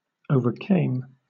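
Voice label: "overcame", British English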